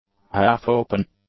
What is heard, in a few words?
"half-open", English; adjectives